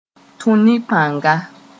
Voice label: "tũ ne pãnga", moore

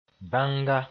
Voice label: "bãnga", Mooré

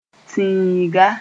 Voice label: "tĩiga", Moore; a race

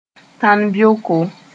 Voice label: "tãn-beoko", moore

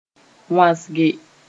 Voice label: "wãsge", Mooré

